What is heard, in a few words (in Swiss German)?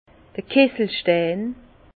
"kisselstein", Bas Rhin; vin; silex